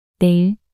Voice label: "내일" 단어 여자

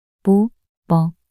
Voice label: 모머 낱소리 여자

